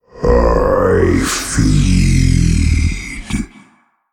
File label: male old god trigger hero power